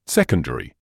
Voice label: "secondary", English

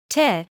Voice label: "tear", medical English

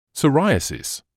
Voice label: "psoriasis", English

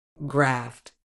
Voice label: "graft", medical English

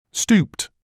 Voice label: "stooped", English

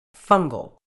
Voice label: "fungal", medical English

